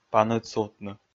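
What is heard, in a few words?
"паныд сувтны", Komi, male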